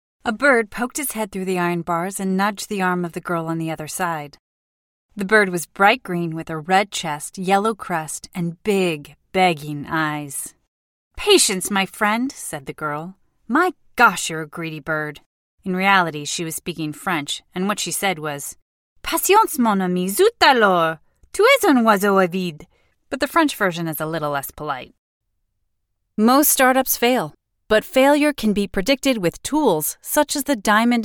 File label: audiobook, voiceover acting